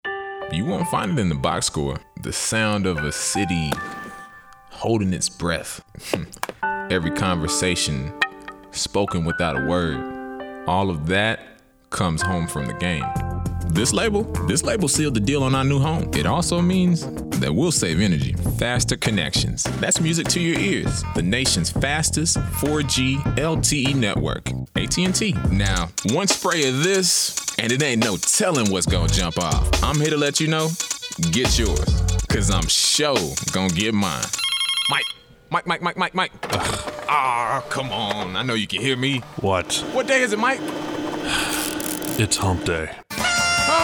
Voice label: commercial